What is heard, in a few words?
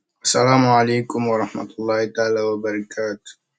voice message